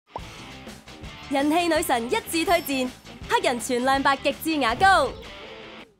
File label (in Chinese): 粵語女聲; Darlie